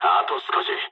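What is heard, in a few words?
near waypoint mood low, speech japanese; War Thunder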